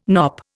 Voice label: "knop", Indian

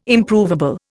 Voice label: "Improvable", Indian

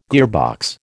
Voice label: "gearbox", American

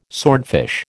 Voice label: "swordfish", American

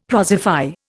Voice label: "prosify", Indian; Dictionary

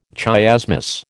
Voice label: "chiasmus", American

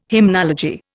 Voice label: "hymnology", Indian

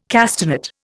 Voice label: "castanet", Indian

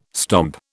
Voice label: "stomp", British

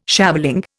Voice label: "shaveling", Indian

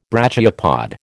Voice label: "brachiopod", American

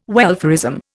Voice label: "welfarism", Indian

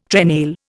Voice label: "trenail", Indian

